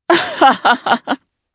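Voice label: Vox Happy, Japanese; Lucias; kingsraid; jp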